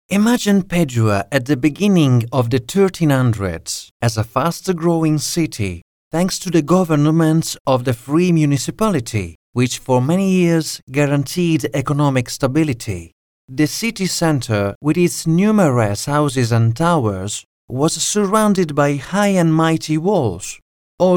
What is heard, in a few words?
english commercial